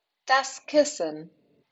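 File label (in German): "das Kissen", German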